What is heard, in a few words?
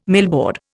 "millboard", Indian